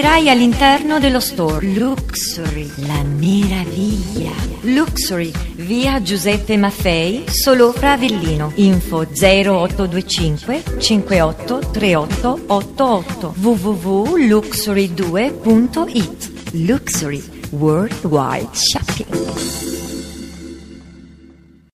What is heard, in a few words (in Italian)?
lounge donna; voci